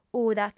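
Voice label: "uda", Sardinian, female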